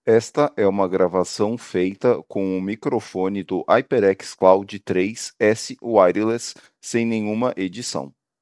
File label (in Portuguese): Gravacao com o HyperX Cloud III S Wireless, headset